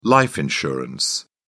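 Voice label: "life insurance", British English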